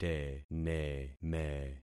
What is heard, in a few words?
"teneme", concat syllables; Affixing experiment